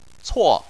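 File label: "cuo" fourth tone, Chinese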